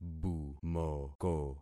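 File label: "bumoko", concatenated syllables; Affixing experiment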